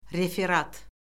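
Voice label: "referat", Azerbaijani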